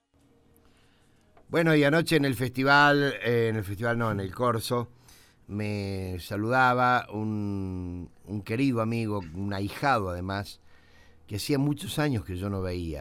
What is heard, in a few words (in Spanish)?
LOCUTOR